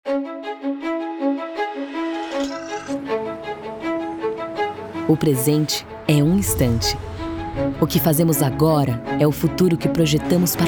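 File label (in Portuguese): repertorio locutora, Somos a maior associação de locutores e locutoras do Brasil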